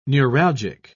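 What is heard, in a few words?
"neuralgic", British English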